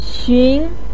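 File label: "xun", Mandarin pinyin, second tone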